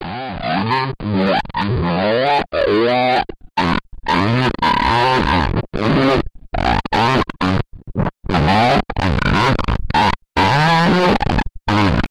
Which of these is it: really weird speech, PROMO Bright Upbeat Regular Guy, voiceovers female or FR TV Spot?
really weird speech